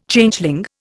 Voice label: "Changeling", Indian